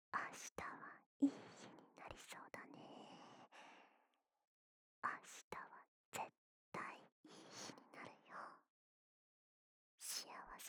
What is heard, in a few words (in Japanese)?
囁き 左耳; 明るい未来